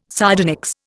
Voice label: "sardonyx", Indian